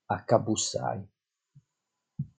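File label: "Acabbussai", Sardinian word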